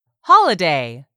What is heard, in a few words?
"HOLIDAY", english; 3000 words